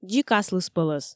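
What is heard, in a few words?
"дзик аслыспӧлӧс", Komi, female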